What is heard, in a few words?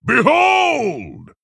Male Tauren Attack